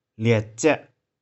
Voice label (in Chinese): "lih4cih4", 玉環